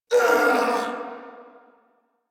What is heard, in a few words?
Pain Corpus Venus Male; Warframe; Battle Barks